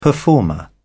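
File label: "performer", British English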